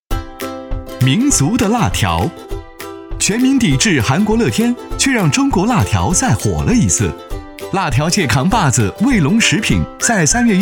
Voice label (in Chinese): 男 专题 轻松 讲述, 国语男声配音; 磁性; 辣条